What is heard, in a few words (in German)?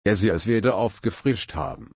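frischen, German slow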